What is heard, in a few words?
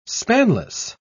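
"spanless", English, British English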